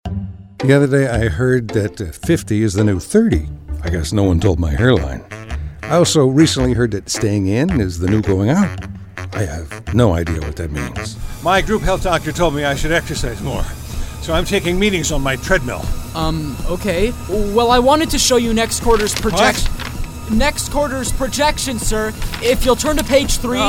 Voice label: Commercial